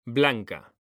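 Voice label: "blanca", Spanish, male; mekanta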